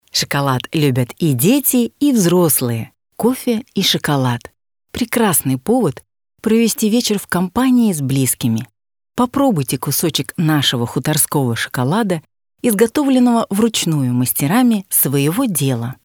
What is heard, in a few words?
RUS female Sweet